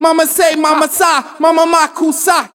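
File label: ma ma se ma ma sa ma ma coo sa, gibberish; Voice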